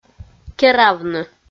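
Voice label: "керавны", Komi, female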